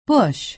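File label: "bush", American English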